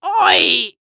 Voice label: Human Pirate Say Ahoy